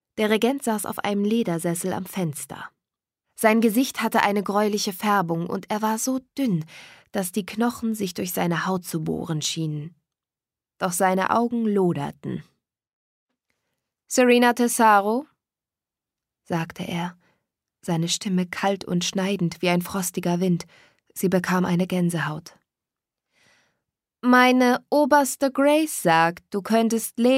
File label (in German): Hörbuch; LOFT VOICES